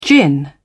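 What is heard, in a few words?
"gin", British English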